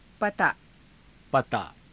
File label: "pataa", Hindi